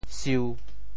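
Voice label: "siu1", Teochew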